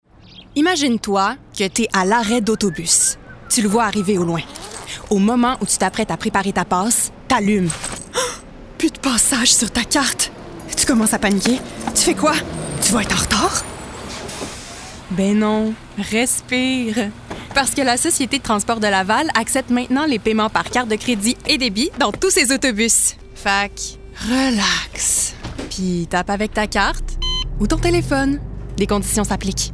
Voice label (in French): spotify fr mix; tam-tam stl